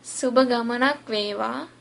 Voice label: bon voyage, sinhala